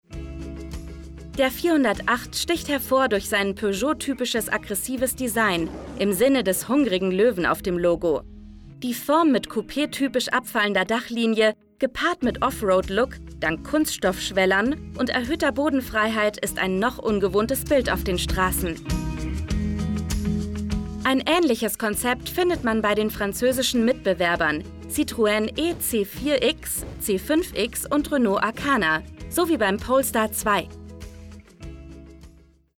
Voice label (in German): Werbung; Motorvision; Peugot